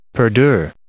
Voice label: "perdure", English